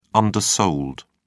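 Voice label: "undersold", English, British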